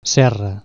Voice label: saw n, Catalan Consonants